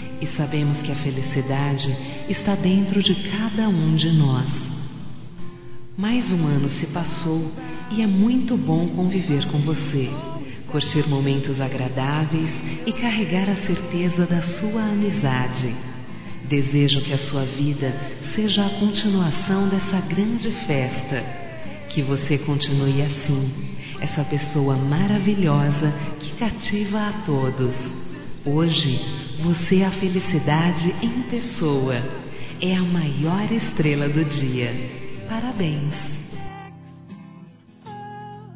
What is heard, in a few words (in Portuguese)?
ANIVERSÁRIO AMIGO ESPECIAL F, Telemensagens; Aniversários; Aniversário de Amigos